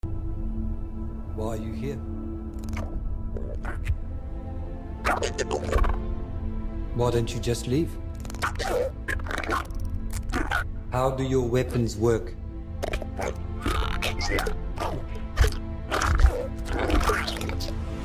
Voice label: trailer